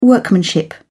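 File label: "workmanship", British English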